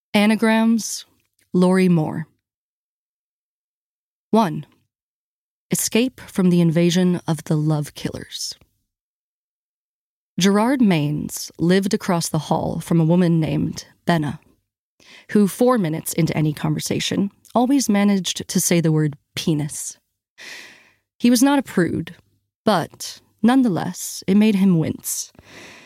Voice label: Audiobook; Anagrams by Lorrie Moore